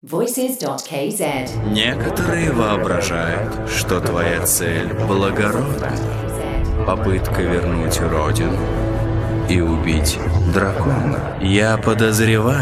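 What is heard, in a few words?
dub Russian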